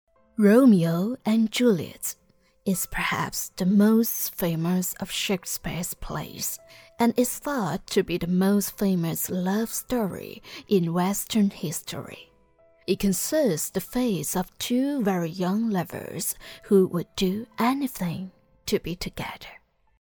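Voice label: Mandarin Chinese, female, voice over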